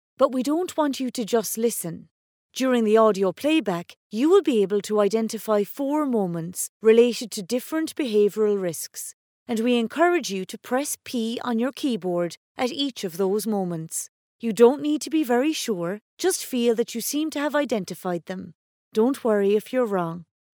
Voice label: locutora irlandesa irish voiceover, locutora de Irlanda; locutora inglesa irlandesa voice over